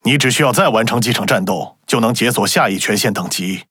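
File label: Speech Access Level Unlock Few, Chinese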